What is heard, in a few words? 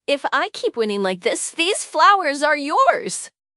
happy, speech; fertig classic games